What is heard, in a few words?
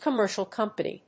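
"commercial company", English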